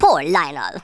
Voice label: "first blood", Rasta